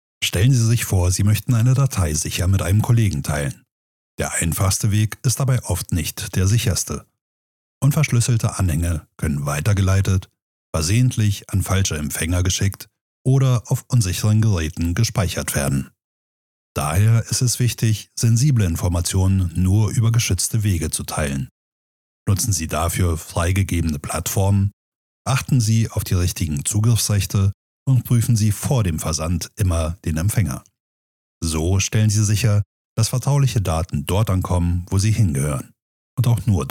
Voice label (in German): Datensicherheit E-Learning Sprachdatei; Sprecherprofil